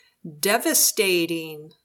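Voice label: "devastating", English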